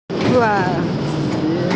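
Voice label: "wa4zi5", Mandarin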